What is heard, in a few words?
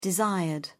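"desired", British English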